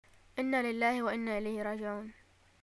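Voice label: greeting death, Yemeni Arabic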